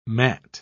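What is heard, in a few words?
"matte", British English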